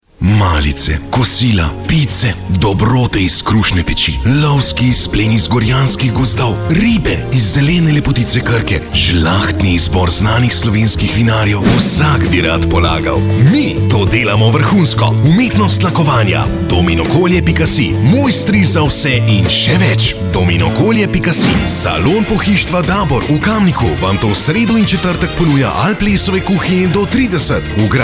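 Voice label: slovenian male commercial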